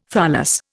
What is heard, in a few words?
"tholos", Indian